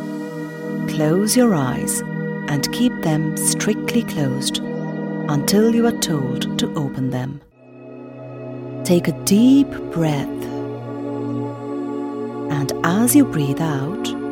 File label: Yoga with Music